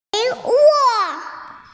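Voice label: "fertile", Mandarin, hearing-impaired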